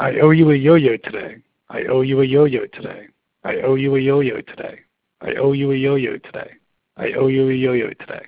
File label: 35yo male yoyo example